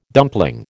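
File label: "dumpling", American English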